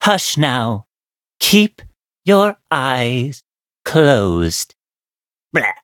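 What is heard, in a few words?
loading, English; Cookie Run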